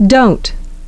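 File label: "dont", English; dəʊnt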